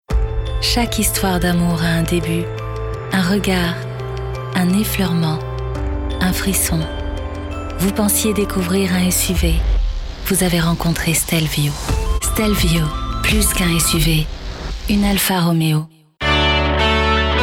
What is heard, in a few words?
RADIO Compilation spots